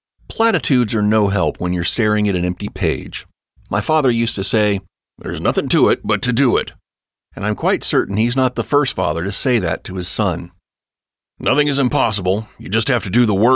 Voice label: audiobook